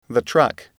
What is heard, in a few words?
truck, english